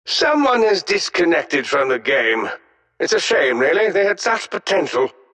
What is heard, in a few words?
announcer connection disconnect; dlc defensegrid